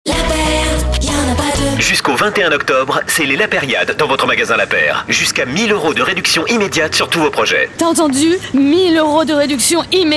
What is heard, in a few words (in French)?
radio pub spot